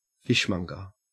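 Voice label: "fishmonger", Australian English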